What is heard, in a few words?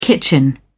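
"kitchen", British English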